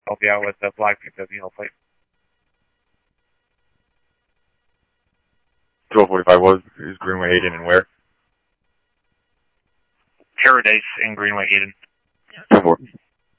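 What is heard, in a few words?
police department, scanner audio trunk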